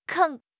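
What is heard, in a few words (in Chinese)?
"坑", Chinese; HSK6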